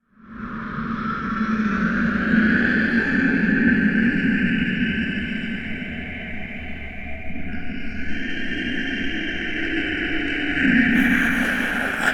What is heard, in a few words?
Creepy Ghost Voice